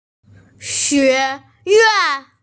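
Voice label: "xue yuan", Mandarin Chinese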